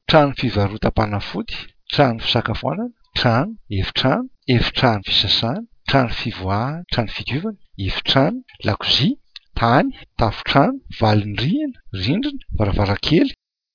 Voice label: malagasy places